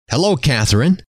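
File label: "hello", male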